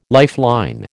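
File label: "lifeline", American English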